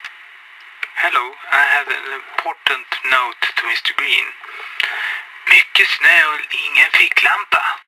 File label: Gibberish phone call; Payday 2